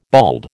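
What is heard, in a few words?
"bald", American English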